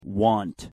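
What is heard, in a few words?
pronunciation "want", English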